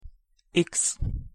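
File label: "x", Hungarian pronunciation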